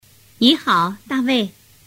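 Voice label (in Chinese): ni hao da wei, Chinese; tu moi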